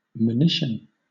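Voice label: "monition", British English; pronunciations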